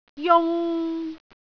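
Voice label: "jung3", Cantonese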